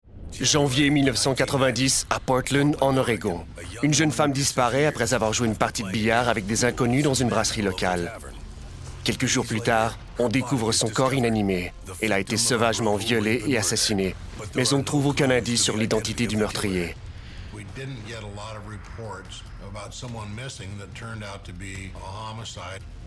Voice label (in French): Narration